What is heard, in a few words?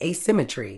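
"asymmetry", British English; ˌeɪˈsɪ.mə.triː; ay-SI-muh-tree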